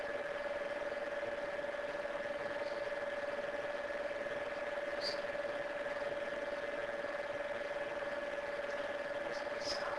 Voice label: Unexplained inaudible whispering